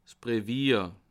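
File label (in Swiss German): "brevier", Bas Rhin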